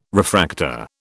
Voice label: "refractor", British